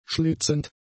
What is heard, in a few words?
"schlitzend", German; adjectives